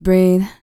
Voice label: FEM. SPOKEN; R&B Dance